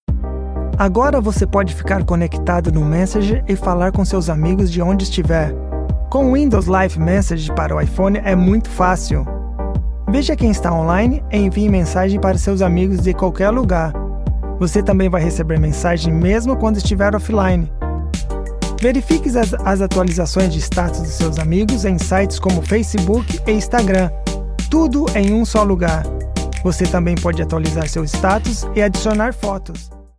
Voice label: Portuguese; Portuguese-Brazilian, Male, Home Studio, 30s-50s

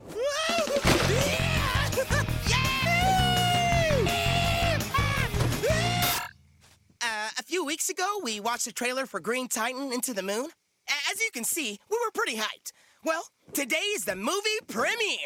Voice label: Animation

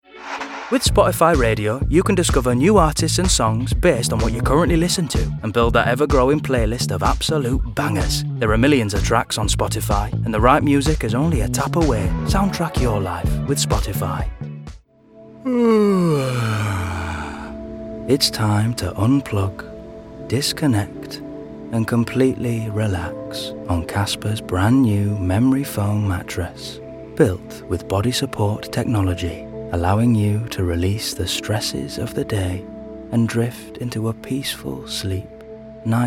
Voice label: Commerical Reel; 20s-30s. Male. Studio. Yorkshire.

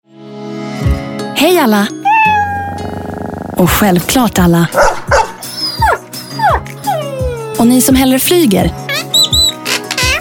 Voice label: Swedish

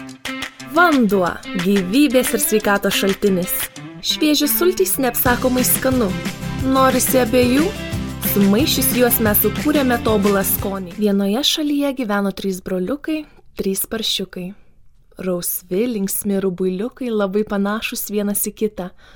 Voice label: Lithuanian

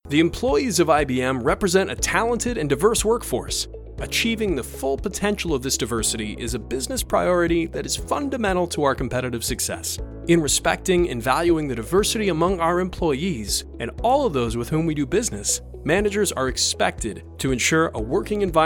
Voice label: Corporate

